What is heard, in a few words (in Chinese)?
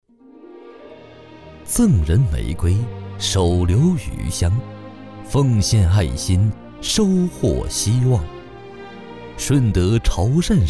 男97-专题-企业宣传片